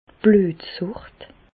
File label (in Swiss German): "bluetsucht", Bas Rhin; medecine; anémie